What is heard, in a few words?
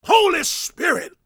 preachin, gospel preacher